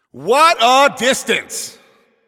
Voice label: Announcer Long Hit To Other Side